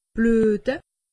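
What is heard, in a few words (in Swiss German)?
"bluete", Alsatian; medecine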